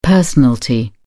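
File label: "personalty", British English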